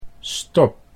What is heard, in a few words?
"stop", Dutch